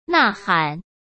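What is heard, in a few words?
"呐喊", Mandarin Chinese; chinese-flashcards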